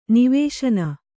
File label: "ನಿವಸನ", Kannada